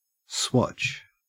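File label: "swatch", Australian English; pronunciations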